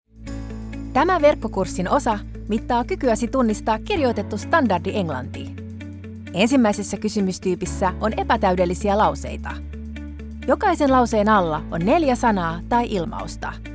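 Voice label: Finnish, Narrative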